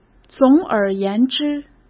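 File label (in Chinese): "总而言之" Mandarin Chinese; chinese-flashcards; hsk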